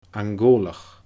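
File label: "Angoolach", Scottish Gaelic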